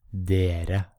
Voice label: "Dere", Norwegian